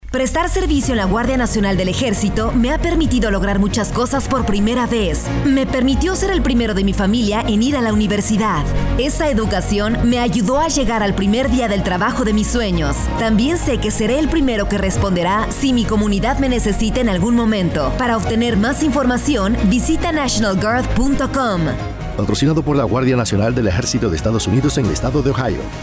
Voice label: Radio Spanish